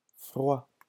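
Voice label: "froid", French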